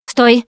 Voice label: Heal Other Combat, russian; Producer

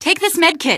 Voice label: health, female; npc; Garry's Mod; hl2

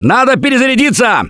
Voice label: reload intense, russian; Gambler

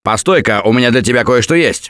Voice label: Alert Give Item Stop, russian; Gambler

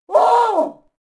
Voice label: Gasmask Die Vox; Beyond the Sword; Units

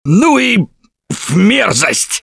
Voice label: boomer reaction, russian; Gambler

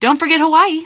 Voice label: answer, female; Garry's Mod; hl2

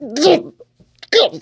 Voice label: radio combat color; Left 4 Dead 2; churchguy

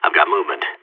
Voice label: radio enemy spotted, seal; player vo

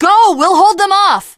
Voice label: teengirl, voice; bridge run; Left 4 Dead 2; l4d1 finale

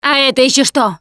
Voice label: taunt, russian, Survivor Voice Producer

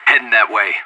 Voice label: on my way, player vo anarchist; Counter-Strike Global Offensive

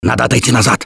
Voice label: back up quiet, russian; Gambler

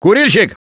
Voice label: Warn Smoker, russian; Gambler

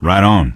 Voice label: answer, male; Garry's Mod; hl2

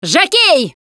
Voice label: warn jockey, russian, Survivor Voice Producer

